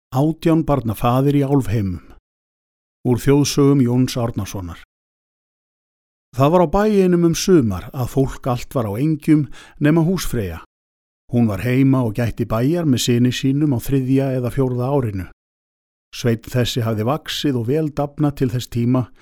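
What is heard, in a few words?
Icelandic, male; voice over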